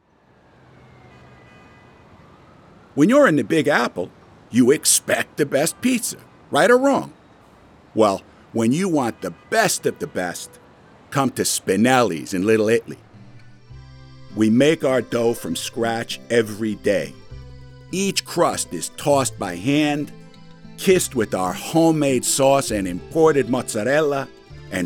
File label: NYC Accent; Omnivox